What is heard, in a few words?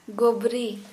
Santali stomach